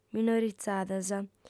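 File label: "minorizadas", female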